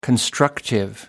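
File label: "constructive", English, British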